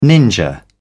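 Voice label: "ninja", British English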